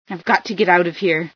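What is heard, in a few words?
get out of here, female scientist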